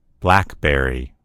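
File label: "blackberry", American English; gcompris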